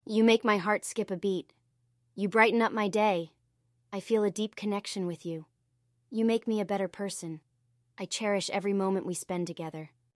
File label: synthesized audio